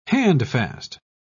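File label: "handfast", British English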